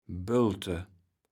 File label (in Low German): "Bölte", kaevels platt